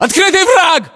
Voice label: threat infantry exposed, battlechatter ru

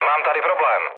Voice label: aircraft damaged, speech cze, mood low

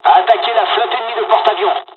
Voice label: bombing, dialogs french; midway us; War Thunder

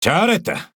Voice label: Speech Quick Commands Good Game Ship, KO; World Of Warships

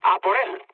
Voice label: attack position, mood low, speech; War Thunder

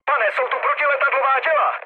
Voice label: damaged aa, speech cze, mood low; War Thunder

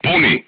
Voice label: voice message load, crew tanks serbian

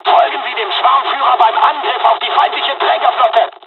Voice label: flight, dialogs german; War Thunder

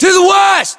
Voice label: direction relative west, battlechatter us; localized english

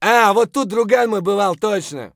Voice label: mutter; Escape from Tarkov

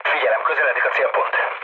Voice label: near waypoint, mood low, speech hun; War Thunder

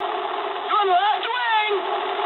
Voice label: eng left wing mood med, speech eng; War Thunder

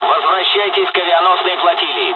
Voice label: evac, dialogs russian; War Thunder

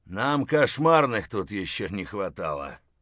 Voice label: mutter, voice; Escape from Tarkov

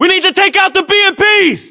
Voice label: voiceovers, US; english

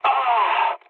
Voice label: damaged pilot mood low; War Thunder

